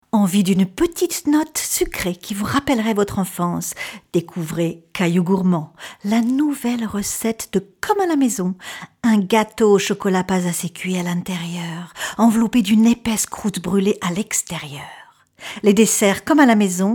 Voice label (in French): Voix Publicite